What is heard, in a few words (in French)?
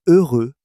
"heureux", French